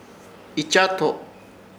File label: "Ichato", Ishir Chamacoco; sandia del monte